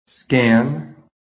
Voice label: "SCAN", american; PHONETIC